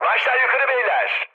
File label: near waypoint, mood high, speech tur; War Thunder